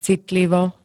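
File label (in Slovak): "citlivo", Slovak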